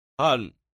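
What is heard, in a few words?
"hen", Chinese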